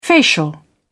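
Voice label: "facial", British English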